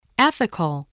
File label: "ethical", voice, english